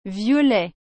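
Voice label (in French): "violet", French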